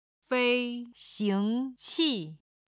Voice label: "fei1 xing2 qi4", Chinese

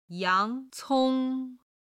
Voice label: "yang2cong1", chinese

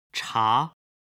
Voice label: "cha", Chinese

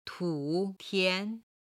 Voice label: "tu tian", chinese

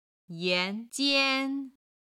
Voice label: "yan2 jian1", Chinese

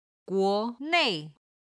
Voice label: "guo2 nei4", Chinese

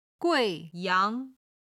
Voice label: "gui4 yang2", Chinese

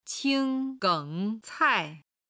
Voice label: "qing1 geng3 cai4", Chinese

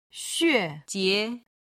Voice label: "xue jie", Chinese

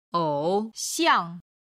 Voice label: "ou xiang", Chinese

